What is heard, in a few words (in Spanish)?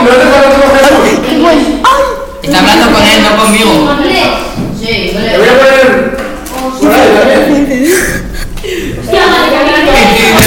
profesor de musica enfadado